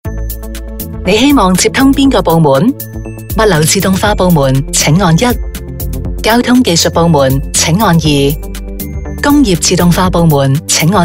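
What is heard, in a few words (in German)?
telefonansagen sprecher Hong Kong; Native Speaker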